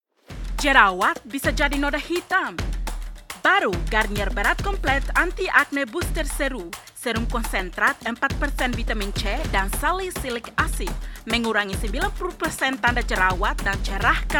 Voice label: INDONESIAN